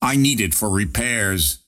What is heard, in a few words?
lasthit pain; ringmaster